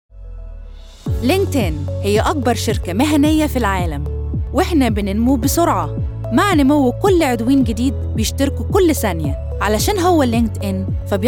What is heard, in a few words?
Arabic